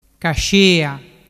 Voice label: "cascea", dialettu

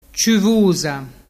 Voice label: "ciuvusa", dialettu

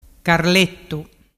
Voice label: "carlettu", dialettu

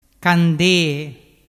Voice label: "candee", dialettu

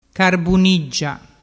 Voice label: "carbuniggia", dialettu

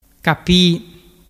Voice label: "capi", dialettu

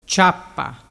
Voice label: "ciappa", dialettu

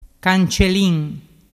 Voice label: "cancelin", dialettu

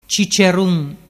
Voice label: "cicerun", dialettu

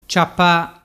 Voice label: "ciapa", dialettu

